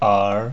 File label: "er", pinyin